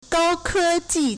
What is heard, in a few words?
"gao1ke1ji4", Chinese